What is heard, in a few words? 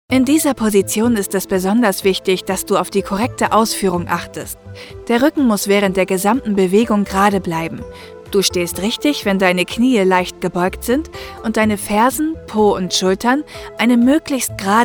E Learning